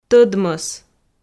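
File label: "тӧдмӧс", Komi, female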